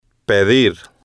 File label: "pedir", spanish